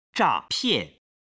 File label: "zhapian", Chinese